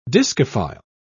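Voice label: "discophile", English, British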